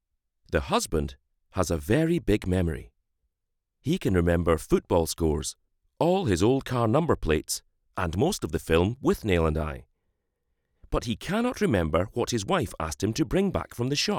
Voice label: Scarlett 2i2